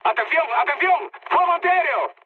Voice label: damaged aa, mood high, speech; War Thunder

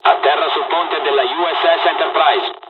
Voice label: landing, dialogs italian; War Thunder

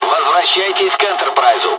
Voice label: us evac, dialogs russian; midway; War Thunder

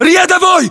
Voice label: rank private, ru; localized english

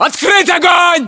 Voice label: order attack infantry, battlechatter, ru

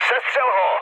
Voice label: attack position, speech cze, mood med

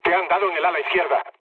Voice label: left wing, mood low, speech; War Thunder

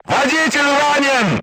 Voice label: voice message driver wounded, crew tanks russian

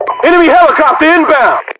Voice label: enemy heli, US English

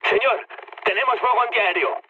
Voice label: damaged aa, mood med, speech; War Thunder

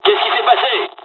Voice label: dialog, dialogs french; War Thunder; midway

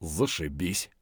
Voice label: mutter, voice; Escape from Tarkov

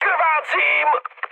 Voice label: damaged pilot, speech cze, mood high; War Thunder

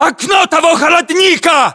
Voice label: landmark near fridge, ru; localized english

